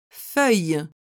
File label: "feuille", French